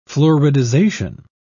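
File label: "fluoridization", British English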